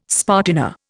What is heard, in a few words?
"spartina", Indian